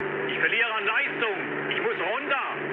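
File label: German damaged engine mood med, speech; War Thunder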